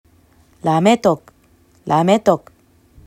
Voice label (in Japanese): "ラメトㇰ", アイヌ語; rametok; アイヌ語クイズ; ラㇺ ram・心 エトㇰetok・の先端